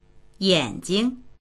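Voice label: "yan3jing", Mandarin Chinese; yǎnjing; 眼睛; 目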